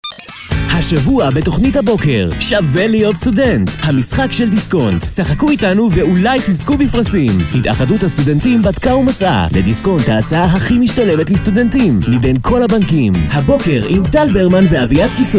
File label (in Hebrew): פרומו; דיסקונט